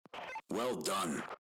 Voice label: well done, radio

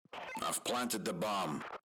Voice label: bomb planted, radio

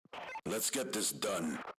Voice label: roundstart, radio

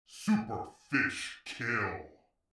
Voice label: "super fish kill", announcer; instagib; blocks and bullets

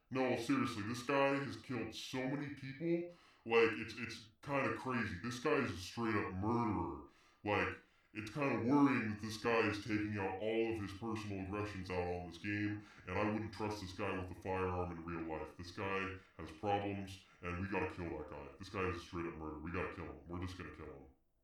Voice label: "mega ultra ultra kill", announcer; instagib; blocks and bullets

